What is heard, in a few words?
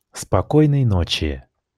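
pronunciation "спокойной ночи", Russian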